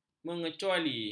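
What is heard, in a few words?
"mengecuali", Malay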